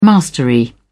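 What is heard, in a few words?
"mastery", British English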